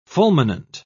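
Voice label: "fulminant", British English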